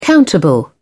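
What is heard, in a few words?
"countable", British English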